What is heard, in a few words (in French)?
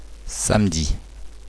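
"samedi", French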